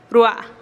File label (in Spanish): "rua'a" mi boca, teotitlan